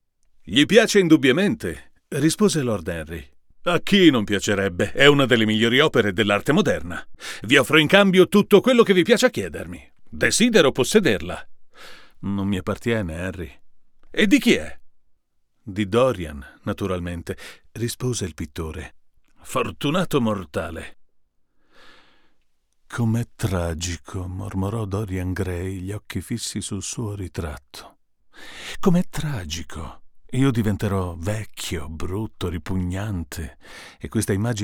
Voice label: U87AI VS S-87 DIY